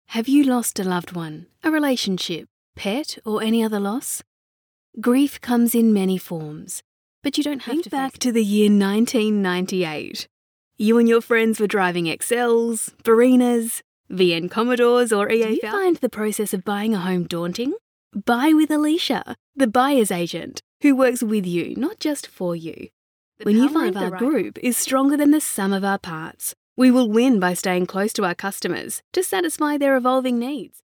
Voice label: Natural, voice overs